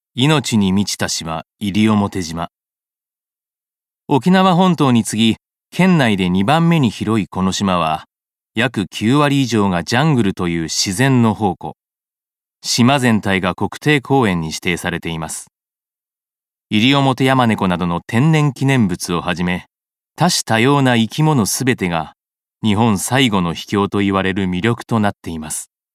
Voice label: 教養番組

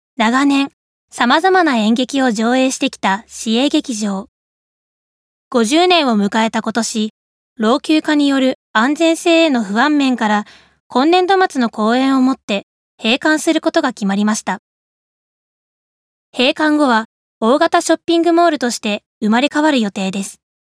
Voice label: ニュース

